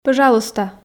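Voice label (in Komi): "пӧжалуйста", Komi, female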